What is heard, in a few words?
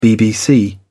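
"bbc", English, British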